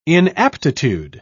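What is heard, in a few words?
"inaptitude", British English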